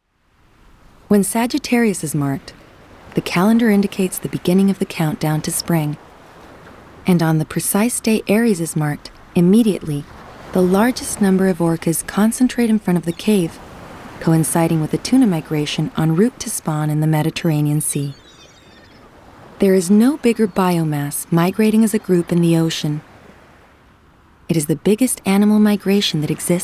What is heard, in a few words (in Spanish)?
Documental EN